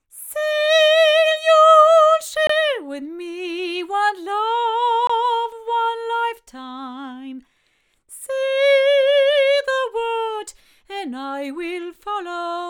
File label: female